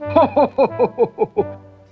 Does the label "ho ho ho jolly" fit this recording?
Yes